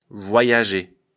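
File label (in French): "voyager", French; afrikalan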